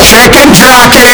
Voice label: chicken jockey hyper distorted